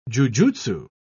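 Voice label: "jujutsu", British English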